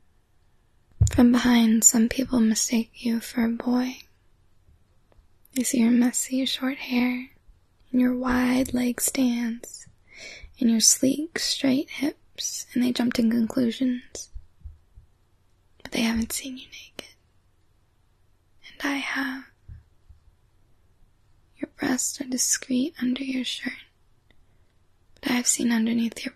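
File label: [F4F] [Whispers][Lust], female; In Praise of Androgynous Girls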